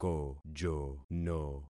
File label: "kojono", concat syllables; Affixing experiment